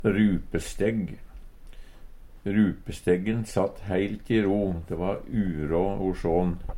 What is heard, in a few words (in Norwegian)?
"rupestegg", Numedalsmål